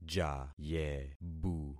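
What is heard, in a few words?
"jayebu", concatenated syllables; Affixing experiment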